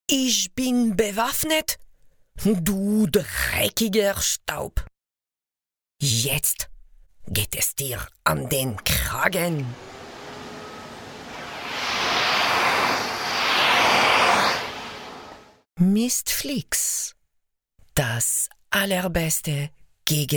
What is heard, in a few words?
German Austrian